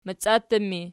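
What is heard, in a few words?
"maciattu" ergative, Archi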